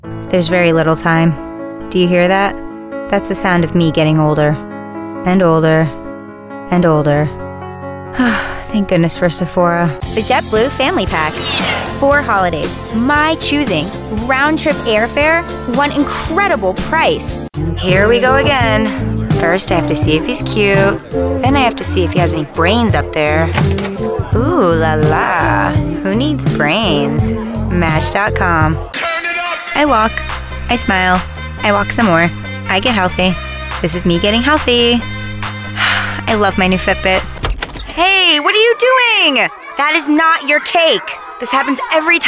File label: Commercial, Women